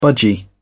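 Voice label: "budgie", English, British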